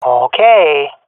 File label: affirmation, swat fem; vo; Counter Strike Model Pack; CS:GO